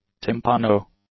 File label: "timpano", English